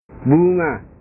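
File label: "bunga", Indonesian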